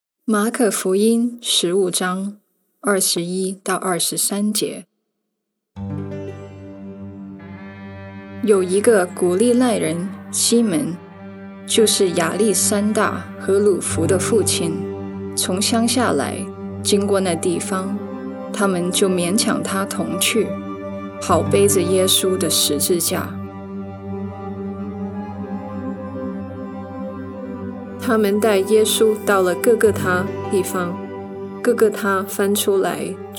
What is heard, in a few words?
Voice Over Chinese; Journey to the Cross